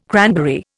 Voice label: "cranberry", Indian